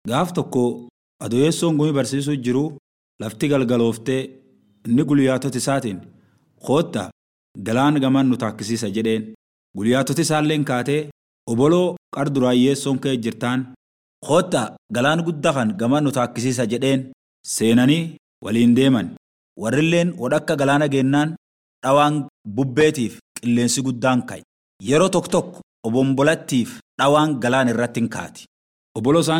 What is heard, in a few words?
Oromo Borana; Hummna Yeso; Jesus Calms the Storm